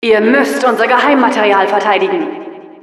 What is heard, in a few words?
announcer defend intel, de